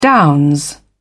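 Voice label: "downs", British English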